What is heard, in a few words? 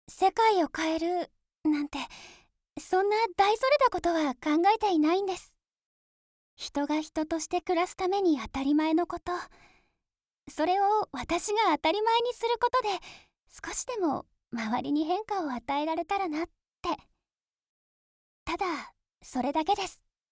neat woman